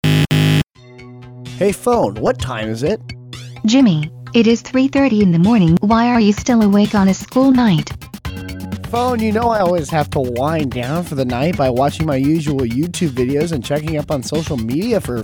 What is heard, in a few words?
PSA